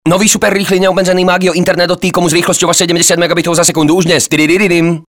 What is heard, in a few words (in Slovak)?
radiospot; istropolitana t-com najrychlejsi